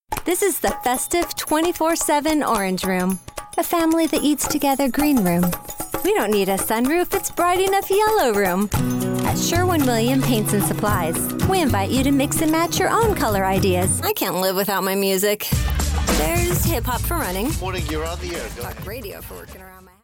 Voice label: English USA